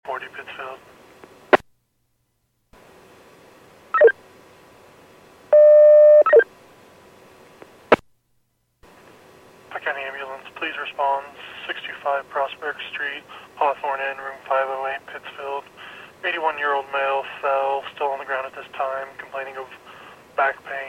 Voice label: PAGING